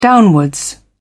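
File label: "downwards", British English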